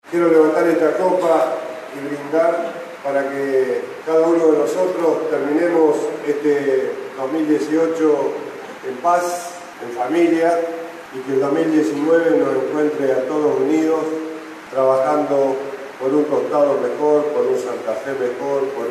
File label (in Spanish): Brindis institucional